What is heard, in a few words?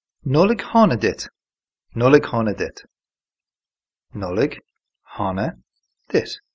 merry christmas irish